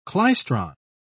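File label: "klystron", British English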